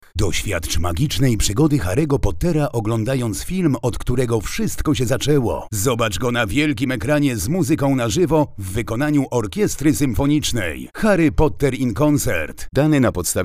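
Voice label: Polish, male; lektoring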